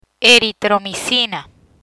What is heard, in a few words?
"Eritromicina", Spanish